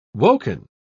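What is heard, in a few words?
"woken", English word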